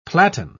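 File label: "platen", British English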